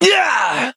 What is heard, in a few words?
hit, de; Cookie Run